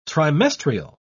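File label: "trimestrial", British English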